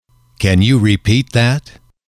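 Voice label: "can you repeat that", English, male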